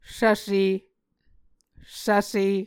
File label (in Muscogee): "svse", Muscogee